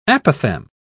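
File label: "apophthegm", British English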